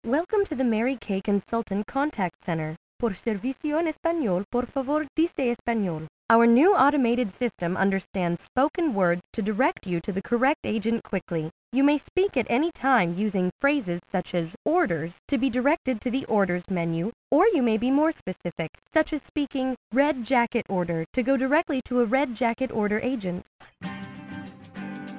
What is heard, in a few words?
IVR On Hold Msgs